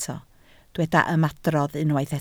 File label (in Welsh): "ymadrodd", Welsh